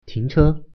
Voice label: "stop", Mandarin